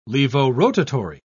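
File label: "levorotatory", British English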